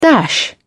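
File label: "dash", English, British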